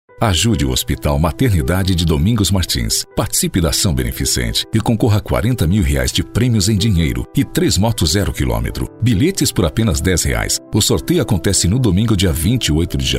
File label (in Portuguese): EMOTIVO LIGHT